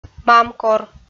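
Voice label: "мамкор", Komi, female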